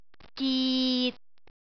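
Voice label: "git6", Cantonese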